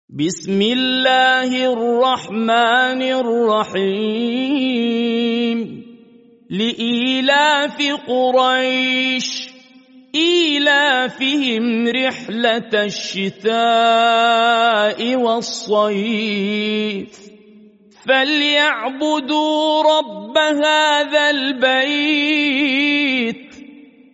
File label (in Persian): تلاوت ترتیل سوره قریش